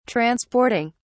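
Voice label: "transporting", British English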